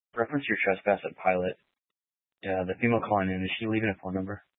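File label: Police 1 Dispatch, Scanner audio recording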